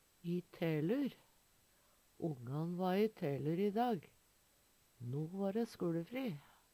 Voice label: i telur, Numedalsmål

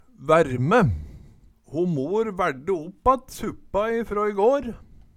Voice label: vaerme, Numedalsmål

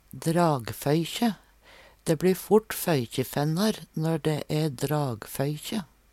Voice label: dragfoykje, Numedalsmål; Vêr og føre

